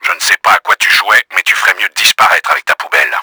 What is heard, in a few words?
ANNOYED, french; KRAMER; Battle Engine Aquila; XBOX